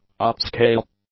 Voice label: "upscale", english; adjectives

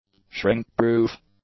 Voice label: "shrink-proof", English; adjectives

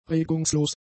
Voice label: "regungslos", German; adjectives

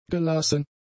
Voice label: "gelassen", German; adjectives

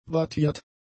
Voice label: "wattiert", German; adjectives

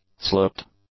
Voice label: "sloped", English; adjectives

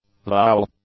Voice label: "vowel", English

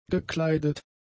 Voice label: "gekleidet", German; adjectives

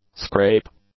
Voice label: "scrape", English; nouns

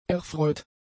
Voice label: "erfreut", German; adjectives

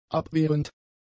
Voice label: "abwehrend", german; adjectives

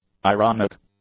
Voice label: "ironic", english; adjectives

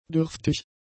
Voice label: "dürftig", German; adjectives

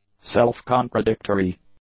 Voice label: "self-contradictory", English; adjectives

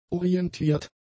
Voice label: "orientiert", German; adjectives

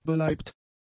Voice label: "beleibt", German; adjectives

